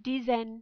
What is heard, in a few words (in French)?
"dizaine", French